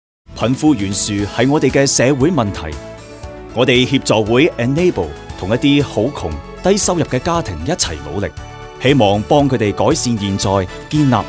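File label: Cantonese; Mega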